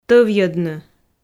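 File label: "тӧвйӧдны", Komi, female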